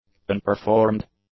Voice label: "unperformed", english; adjectives